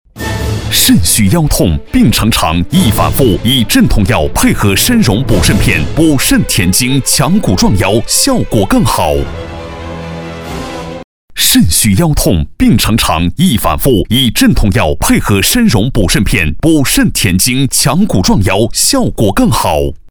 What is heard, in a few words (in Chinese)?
广告 电购 肾虚腰痛